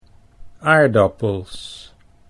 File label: "aardappels", Dutch